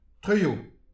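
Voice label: "truilhou", Breton; petit precis de prononciation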